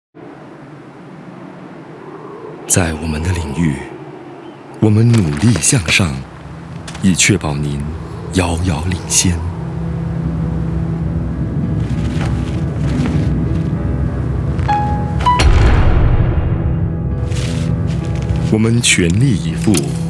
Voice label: MAND; Citizen Celcom TV60 Full Mix

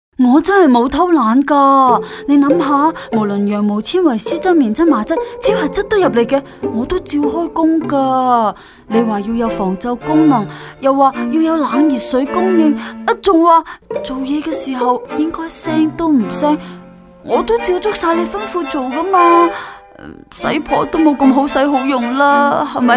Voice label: Cool Cantonese Washing Machine; Fujiaire; 30sec

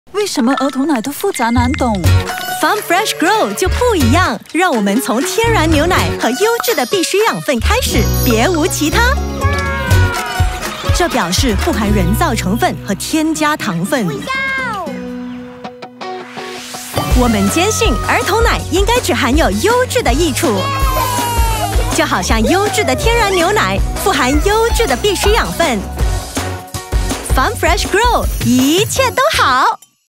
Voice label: Mandarin Character VO, Style: Young & Engaging; Clean & Clear (1st role)

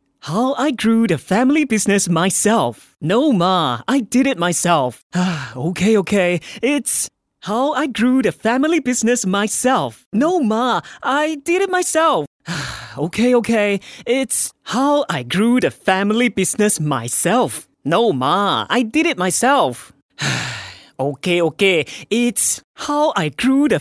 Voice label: English - Chatacter, Normal & Malaysia Accent; Quickbooks

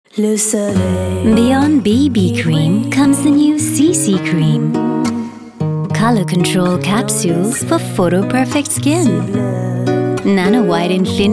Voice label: Eng; Nanowhite CC Cream

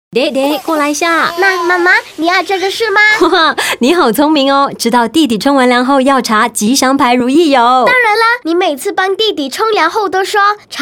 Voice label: Mandarin; Yu Yee Oil; Indonesia

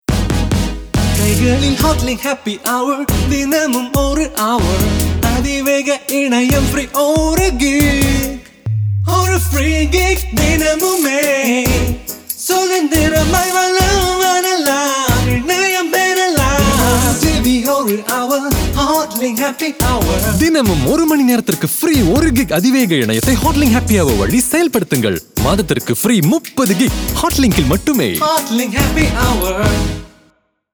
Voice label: Tamil Mix; Hotlink Happy Hour

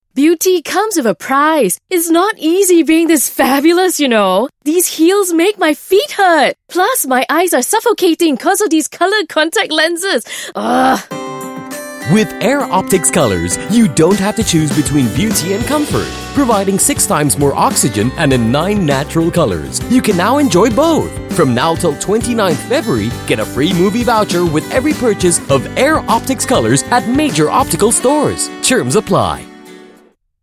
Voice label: CHARACTER VO; Alcon Air Optix Colors

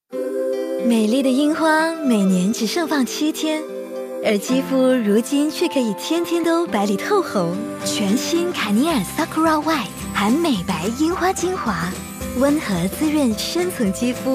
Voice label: Charming soft sweet; Garnier

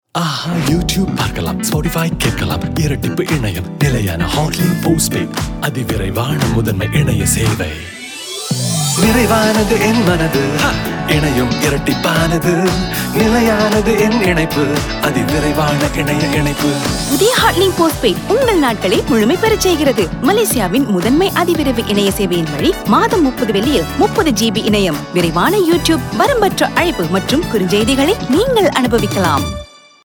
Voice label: TAMIL; Hotlink Postpaid Revamp

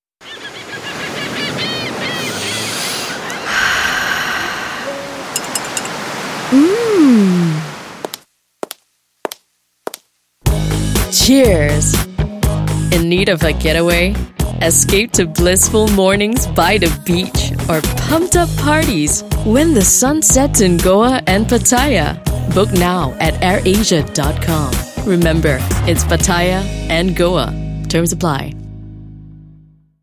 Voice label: ENG; AIR ASIA; GOA; PATTAYA

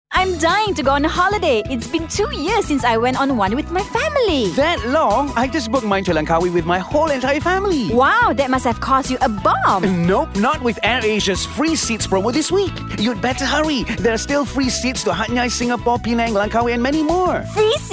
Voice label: ENG; AIR ASIA FREE SEATS PROMO

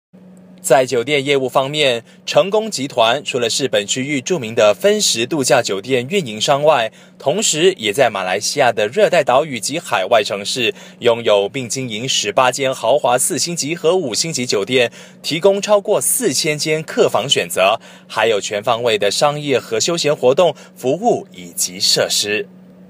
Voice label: clean read corporate

